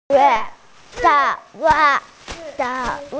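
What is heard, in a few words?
"zhi4 yu4", Mandarin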